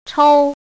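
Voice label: "chou" first tone, pinyin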